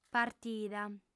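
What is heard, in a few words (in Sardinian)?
"partida", Sardinian, female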